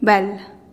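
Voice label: "bell", snake, Teotitlán del Valle Zapotec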